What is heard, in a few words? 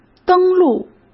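"登陆", Mandarin Chinese; chinese-flashcards; hsk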